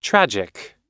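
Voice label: "tragic" English word; oxford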